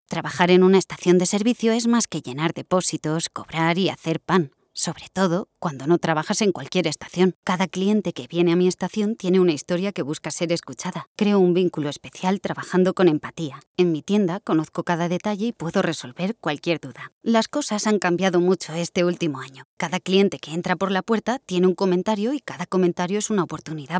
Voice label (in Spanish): video corporativo tono natural